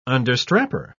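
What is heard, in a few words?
"understrapper", English, British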